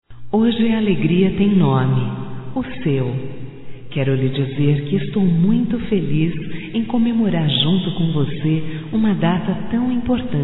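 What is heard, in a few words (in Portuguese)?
voz Aniversario amigo, fem; Telemensagens 2017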